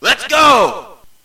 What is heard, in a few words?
sfx lets go